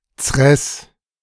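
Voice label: "Cres’", German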